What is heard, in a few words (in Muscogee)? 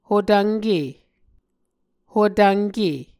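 "hotvnke", Muscogee word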